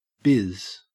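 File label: "biz", Australian English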